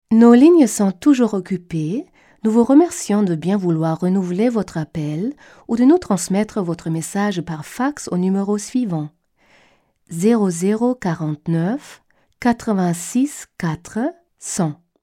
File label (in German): französisch, Sprecherin, telefonansagen